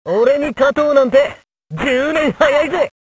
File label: 8bit voice